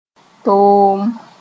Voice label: "toom", Mooré; leprosy

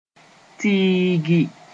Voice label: "tiigi", Moore; improvise

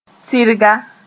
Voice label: "tɩrga", moore

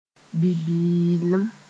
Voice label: "bi-bɩɩlem", Mooré

